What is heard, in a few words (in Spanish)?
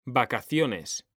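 "vacaciones", Spanish, male; mekanta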